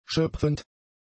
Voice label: "schöpfend", german; adjectives